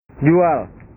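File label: "jual", Indonesian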